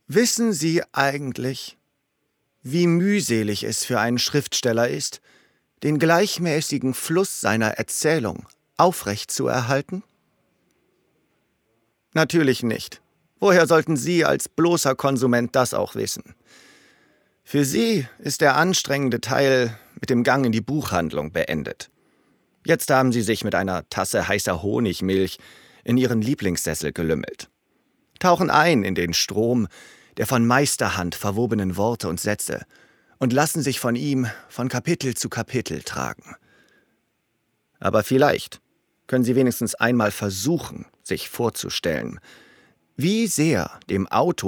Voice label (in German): Hoerbuch; Hoerbuch Demo Moers